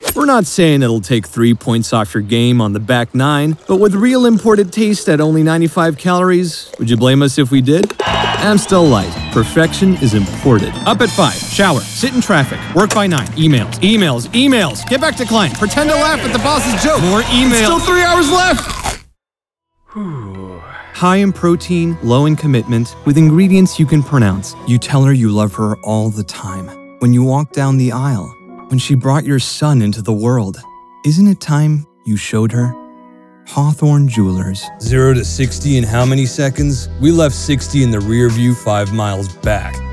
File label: Commercial English